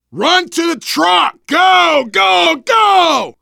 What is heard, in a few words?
arrive truck, survivor voice, biker; Left 4 Dead 2